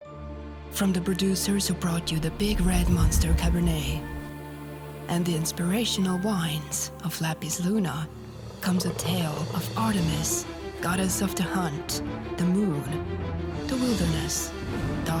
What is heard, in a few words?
english commercial